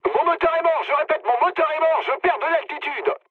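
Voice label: French damaged engine mood med; War Thunder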